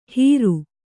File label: "ಹೀರು", kannada